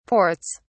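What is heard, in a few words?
"ports", British English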